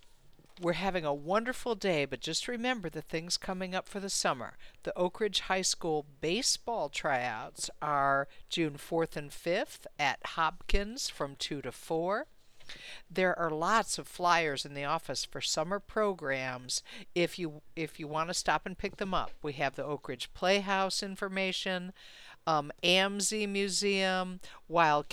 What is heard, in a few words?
Morning Announcements